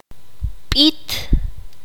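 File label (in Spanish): "canción", Q'anjob'al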